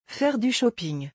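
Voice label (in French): "Faire du shopping", French; vocabulaire; le lexique des vacances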